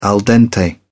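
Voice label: "al dente", British English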